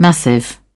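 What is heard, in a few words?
"massive", British English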